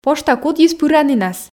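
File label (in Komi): пошта кудйыс пыранінас, Komi, female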